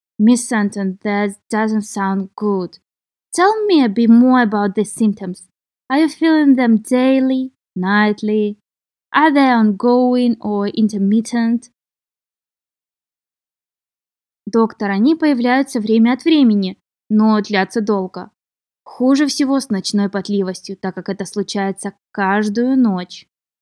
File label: Medical Certified Oral Russian Practice